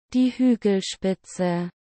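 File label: "die Hügelspitze", German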